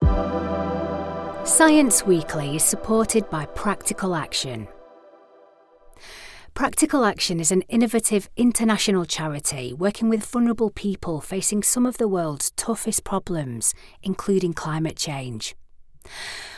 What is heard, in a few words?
english british narration